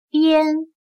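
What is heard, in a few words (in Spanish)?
"边", chino mandarin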